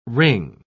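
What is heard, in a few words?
"ring", English word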